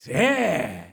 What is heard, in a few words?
mexican Ah; netent